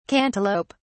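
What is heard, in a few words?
"cantaloup(e)", British English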